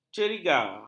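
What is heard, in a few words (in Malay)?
"ceriga", Malay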